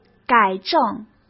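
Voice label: "改正" Mandarin Chinese; chinese flashcards; hsk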